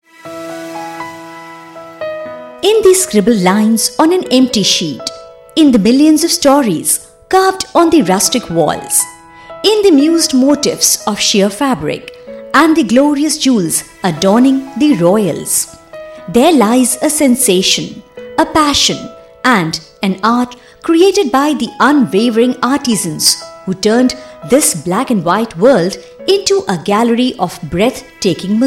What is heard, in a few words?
English international promos; âncora de TV e artista de VO